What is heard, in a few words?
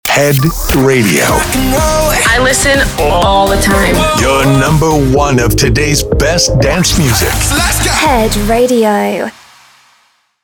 Sweeper Station ID